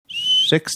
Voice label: saying six heavily sibilant manner